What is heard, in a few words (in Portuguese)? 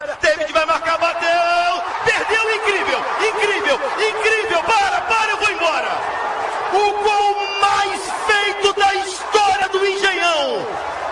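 narrao do gol perdido radio; vasco 2 x 1 mulambada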